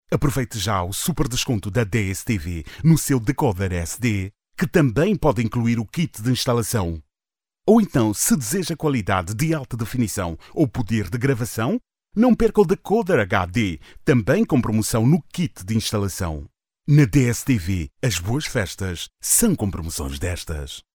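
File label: Portuguese Mozambique male voice over, sales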